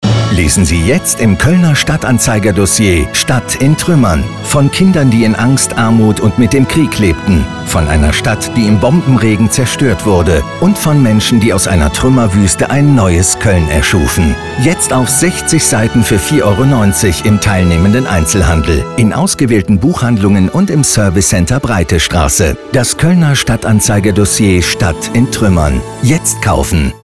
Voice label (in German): Funkspot; KStA